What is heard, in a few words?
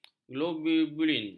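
"globulin", Malay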